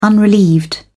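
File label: "unrelieved", British English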